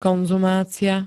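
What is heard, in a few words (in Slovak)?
"konzumácia", Slovak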